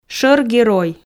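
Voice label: "шор герой", Komi, female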